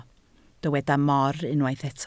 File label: "mor", Welsh